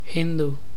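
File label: "Hindu", Hindi; Hi-Hindu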